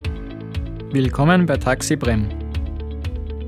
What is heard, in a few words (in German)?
Telefon Jingle Beruessung Taxi mit Musik